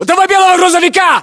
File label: landmark near truck white, ru